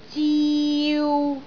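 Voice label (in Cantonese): "ziu3", Cantonese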